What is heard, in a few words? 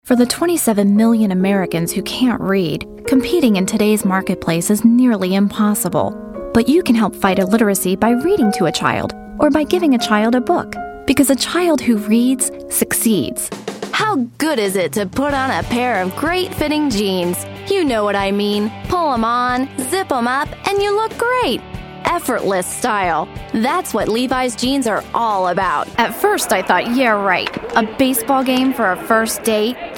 young, female voice radio commercial